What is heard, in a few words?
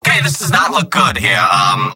scout negative vocalization; tf2; mvm